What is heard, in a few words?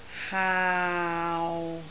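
"haau6", Cantonese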